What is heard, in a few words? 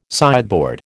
"sideboard", American